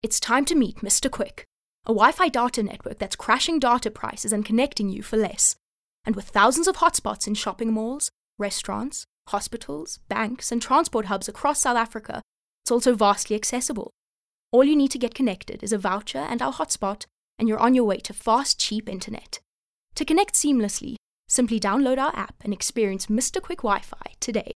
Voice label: English conversational